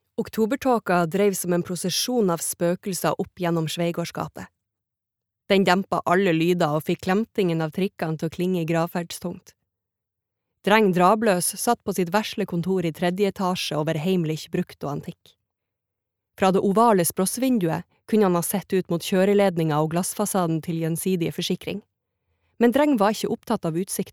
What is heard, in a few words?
no lydbok, norwegian, female